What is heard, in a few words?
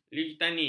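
"litani", Malay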